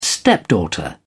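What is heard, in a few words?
"stepdaughter", British English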